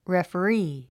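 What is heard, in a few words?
"referee", English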